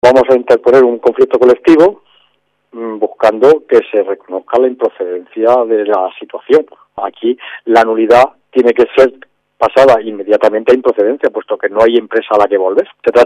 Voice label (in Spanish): noticias